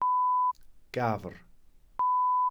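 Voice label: "gafr", Welsh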